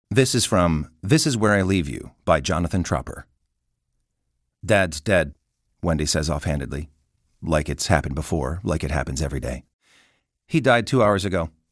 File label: narration audiobook; this is where i leave you; jonathan tropper